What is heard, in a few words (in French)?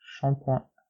"shampooing", French